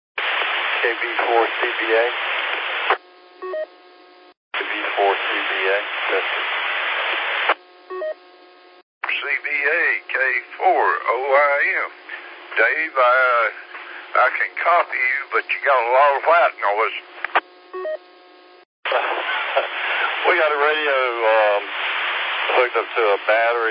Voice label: qso rec simplex logic